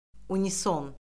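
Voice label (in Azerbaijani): "unison", Azerbaijani; Orfoqrafiya Lüğəti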